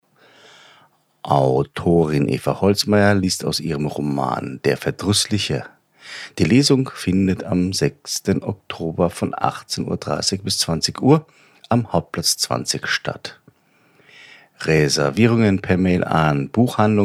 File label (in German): Lesung; Der Verdruessliche